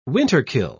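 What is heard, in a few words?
"winterkill", British English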